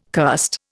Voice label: "cursed", Indian